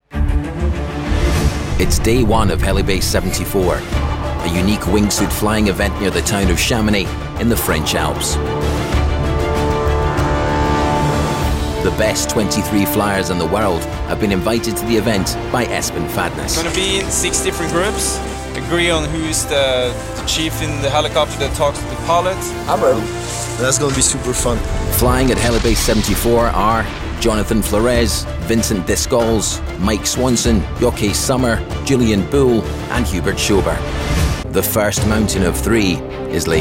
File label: Documentary